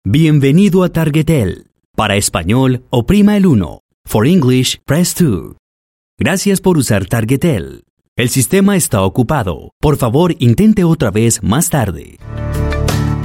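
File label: IVR Latin Spanish male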